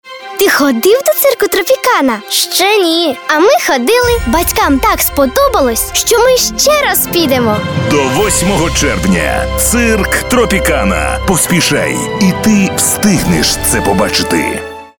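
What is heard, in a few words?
Ukrainian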